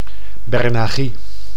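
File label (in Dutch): "bernagie", Dutch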